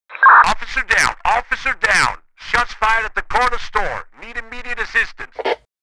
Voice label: Police radio chatter need immediate assistance

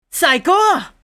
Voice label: The best anime boy character

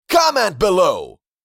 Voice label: Comment below social media male

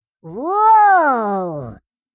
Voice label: surprise exclamation voice; Minion; minions

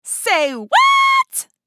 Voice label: Say what meme voice female

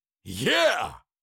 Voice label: Cartoon lion voice, "yeah"

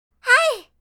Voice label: Yes anime girl character

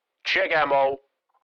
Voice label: Military radio voice command; check ammo

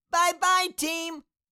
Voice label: Cartoon little child voice bye bye team

